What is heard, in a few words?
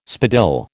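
"spadille", American English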